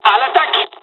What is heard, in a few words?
dialog, dialogs french; midway; War Thunder